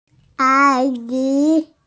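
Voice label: "a1yi2", Mandarin Chinese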